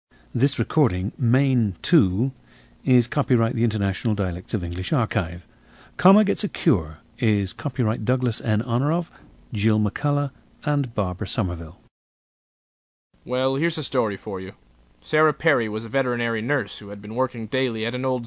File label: Maine, International Dialects of English; Maine 2; a 20-year-old man from Falmouth, Maine, United States.